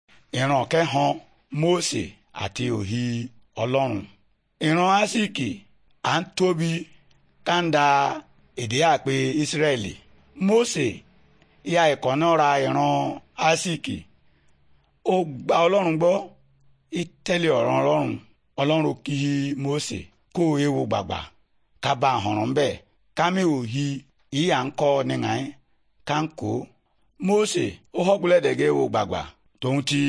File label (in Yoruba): good news yoruba, bible